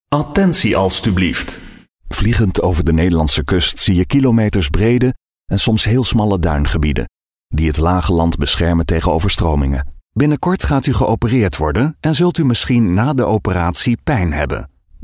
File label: DUTCH NL narration; niederlande